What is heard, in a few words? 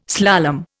"slalom", Indian